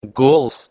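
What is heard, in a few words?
"gols", Proto-Indo-European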